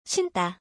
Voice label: "sinda", Korean, put on